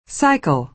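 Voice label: "cycle", American English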